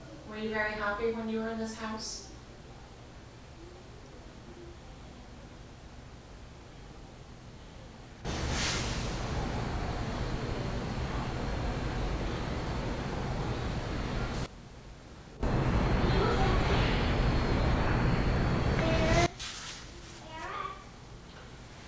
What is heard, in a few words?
whisper